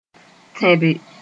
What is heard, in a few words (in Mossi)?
"tẽbe", Moore